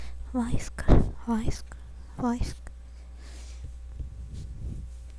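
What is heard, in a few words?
"bicycle", spoken word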